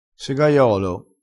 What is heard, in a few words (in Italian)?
"segaiolo", vocabolario dialettale